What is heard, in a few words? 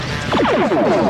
pewwwwwww